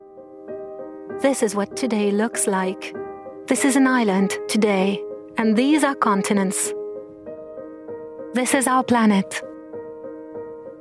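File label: F, French France, female, accent English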